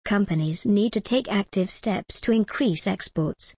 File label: en gb companies need to take active steps to increase exports